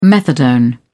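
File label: "methadone", English, British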